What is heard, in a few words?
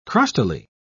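"crustily", British English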